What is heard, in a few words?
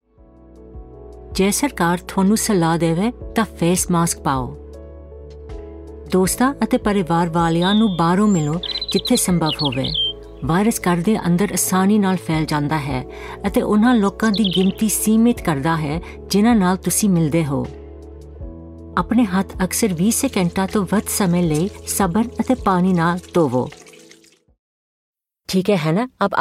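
Punjabi Commercial; GoLocalise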